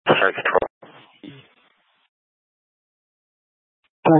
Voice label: Fire Departments VHF 154.800 Patch, Mesa Simulcast; TOPAZ